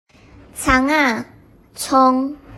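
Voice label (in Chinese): "蔥", 台湾華語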